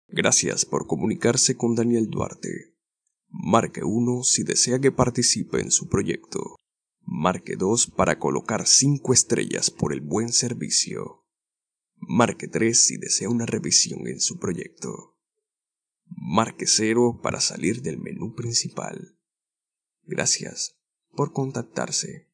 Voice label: Spanish (LA), Male